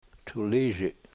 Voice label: "tuliishi", Washo; wolf

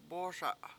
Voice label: "bOOSa7", Washo; to boss around

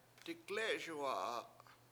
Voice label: "dikLE7eSuwa7a", Washo; I chased them away

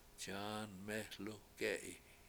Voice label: John Is An Old Man, washo

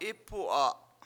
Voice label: "Ipu7a", Washo; he found

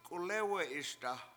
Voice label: k7ulEwe7iSda he lay there, Washo

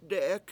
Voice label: "dE7ek" rock, Washo

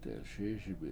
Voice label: "delSIISibi7" Smooth, Washo

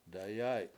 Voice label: "dayAy" flower petal, Washo

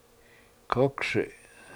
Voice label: kOkSi7 Onion, Washo

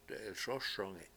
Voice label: "de7ilSOSongi7", Washo; Brown

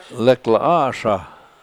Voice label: lak7la7aSha7 Tell Me, Washo

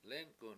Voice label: "lEnk7un", washo; to bend

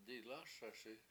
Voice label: "dilASaSi7", Washo; I dont know

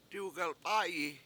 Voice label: I talk nonsense lie, Washo; diwgalp$A7yi

